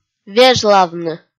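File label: "вежлавны", Komi, female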